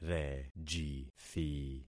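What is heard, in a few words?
"rejithi", concatenated syllables; Affixing experiment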